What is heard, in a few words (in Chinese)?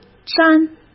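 "粘", Mandarin Chinese; hsk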